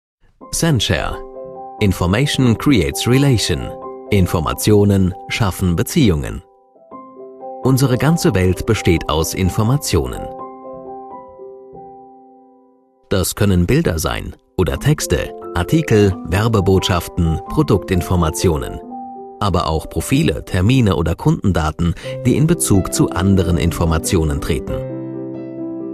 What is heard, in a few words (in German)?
Sprecher imagefilm; censhare